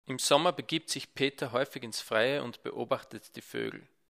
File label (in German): mikro pop nahe seitlich mit filter